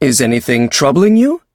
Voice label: touch, en; Cookie Run

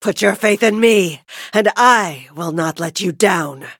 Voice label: level up, en; Cookie Run

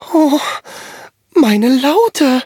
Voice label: defeat, de; cookie run

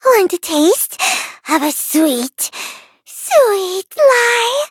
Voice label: levelup en

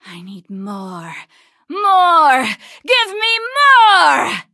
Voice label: levelup, en; cookie run

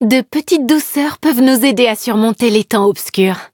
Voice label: levelup, fr; cookie run

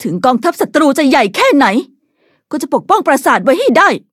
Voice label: level up, th; cookierun

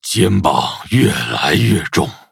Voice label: levelup zh-hant; Cookie Run

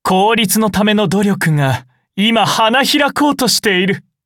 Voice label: levelup ja; cookie run

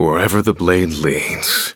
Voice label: eng menu tap; black pudding; cookie run

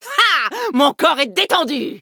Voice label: victory, fr; Cookie Run

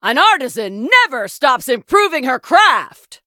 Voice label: level up, en

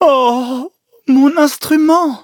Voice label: defeat, fr; Cookie Run

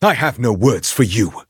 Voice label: battle, en; cookie run

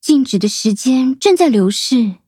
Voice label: levelup zh-hant; Cookie Run

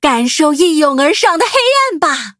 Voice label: Cookie unused upgrade, zh-hant; cookie run

